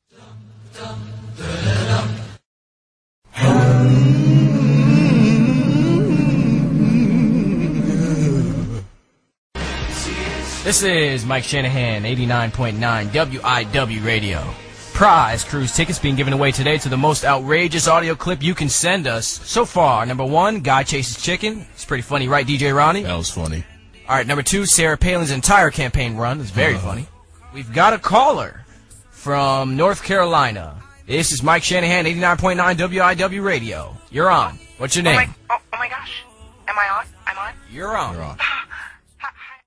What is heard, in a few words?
black preacher preaching